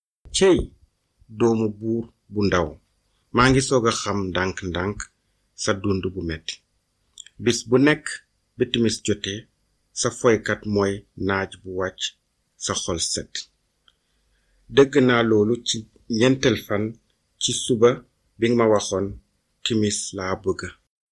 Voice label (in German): Wolof, Kaolack